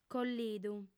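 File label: "collidu", Sardinian, female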